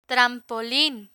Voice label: "trampolin", Spanish

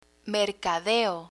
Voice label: "mercadeo", Spanish; vocabulary; learn spanish

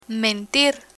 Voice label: "mentir", Spanish

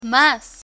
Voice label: "mas", Spanish

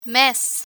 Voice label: "mes", Spanish; learn spanish

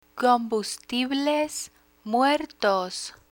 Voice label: "combustibles muertos", Spanish; firefighter vocabulary; learn spanish

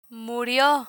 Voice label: "murio", Spanish; medical medicine vocabulary; learn spanish

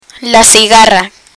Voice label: "cigarra", Spanish; spanish for children; learn spanish

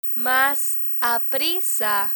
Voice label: "mas aprisa", Spanish

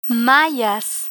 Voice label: "mayas", Spanish; learn spanish

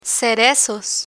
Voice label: "cerezos", Spanish; spanish vocabulary; learn spanish

